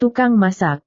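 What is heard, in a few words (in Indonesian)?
"tukang masak", Indonesian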